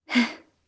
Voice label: Vox Casting jp; Shamilla; kingsraid